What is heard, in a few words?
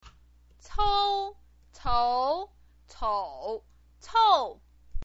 "cou", chinese pinyin